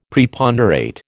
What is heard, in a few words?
"Preponderate", American